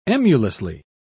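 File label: "emulously", British English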